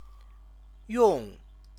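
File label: "yong", Chinese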